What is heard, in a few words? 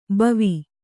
"ಭವ", kannada